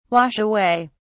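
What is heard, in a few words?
"wash away", British English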